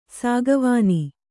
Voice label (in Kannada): "ಸಾಗುವಾನಿ", Kannada